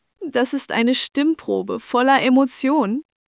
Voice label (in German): Flehend, Stimmen; moods